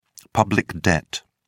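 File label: "public debt", British English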